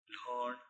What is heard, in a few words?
"lhooN", Palula